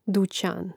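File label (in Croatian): "dućan", Hrvatski; mreznik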